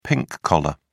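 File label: "pink-collar", British English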